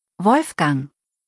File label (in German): "Wolfgang", deutsch jungennamen